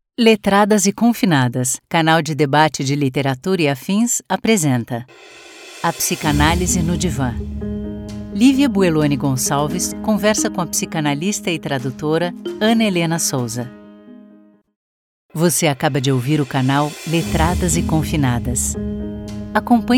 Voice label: Podcast